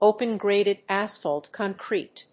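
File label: "open-graded asphalt concrete", English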